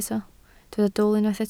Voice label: "dwl", Welsh